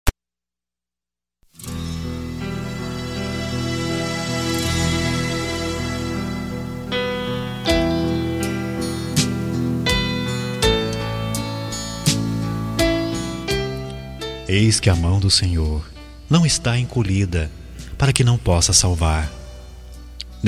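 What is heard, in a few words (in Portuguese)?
Evangélica Mensagem pra Amizade, male, telemensagens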